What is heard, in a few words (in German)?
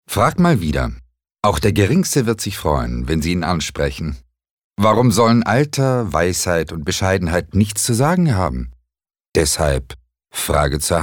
Werbung Neutral